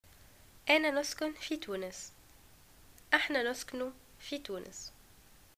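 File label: live in tunis, Tunisian Arabic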